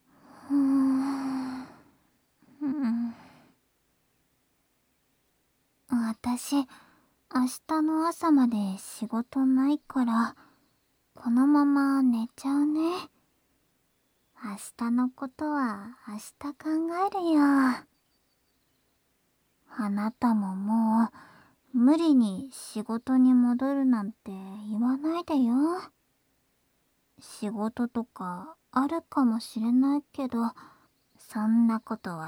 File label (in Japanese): 添い寝; ごめん、私も眠くなって…